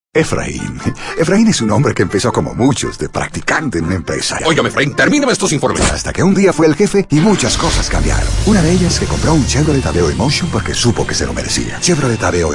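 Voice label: latam colombian male commercial; voice demos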